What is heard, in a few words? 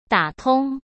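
"打通", Mandarin Chinese; hsk; chinese-flashcards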